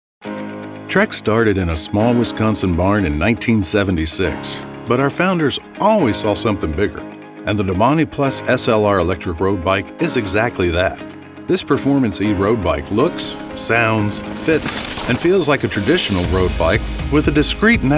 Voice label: Commercial